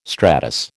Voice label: "stratus", American